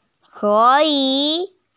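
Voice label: "ke3yi3" Mandarin Chinese, female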